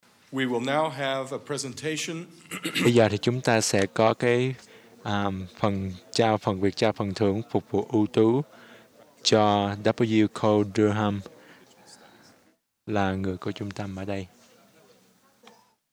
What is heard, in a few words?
Vietnamiese; vietnamese; iclrs symposium 2009